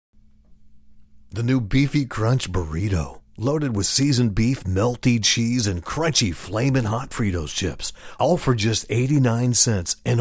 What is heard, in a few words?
DIRECT; Taco Bell